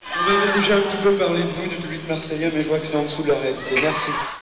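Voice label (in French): "merci", French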